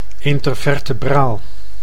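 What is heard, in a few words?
"intervertebraal", Dutch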